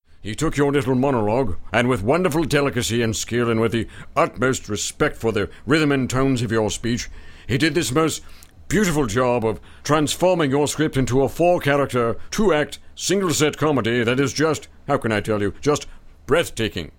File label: up class brit